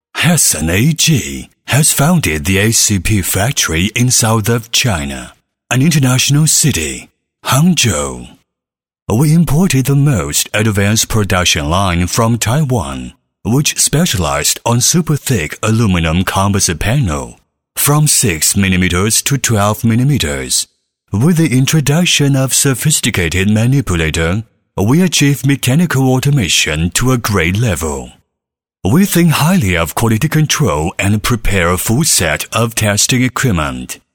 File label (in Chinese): 英男 专题 磁性流行